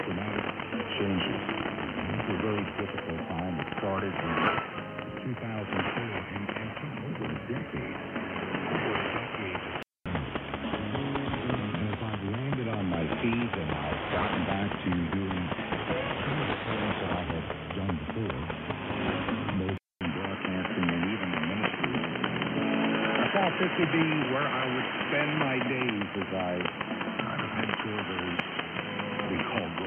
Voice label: 9395KHz, AM Synchron, oberes Seitenband. Ziemlich durch lokale Störungen beeinträchtigt; WRMI 9395KHz AM-U; Auch hier bringt der R8600 eine transparente Wiedergabe.; NRD545; G33DDC

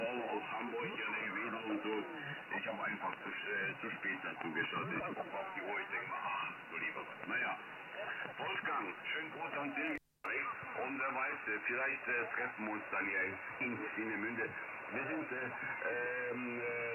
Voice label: LSB Amateurfunk; Pocket

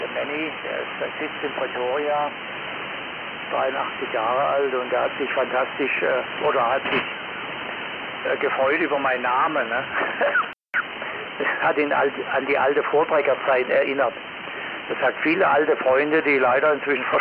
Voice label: Amateurfunk LSB; 3714khz

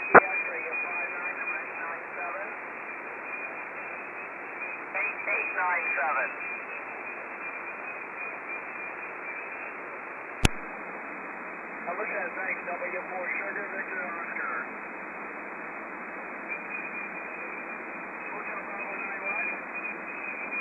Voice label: Amateurfunk USB; 21238khz

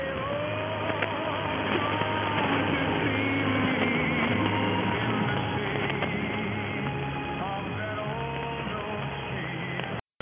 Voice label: 6085KHz, AMS und 6.4KHZ Bandbreite; Radio MiAmigo; Hier bringt der R8600 eine etwas transparentere Wiedergabe als die anderen zwei.; NRD545; G33DDC

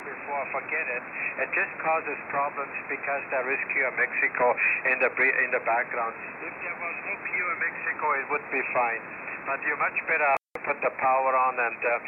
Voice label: Amateurfunk USB; 18111khz

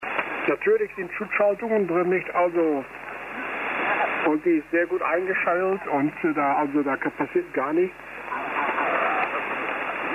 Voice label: Amateurfunk 80m LSB

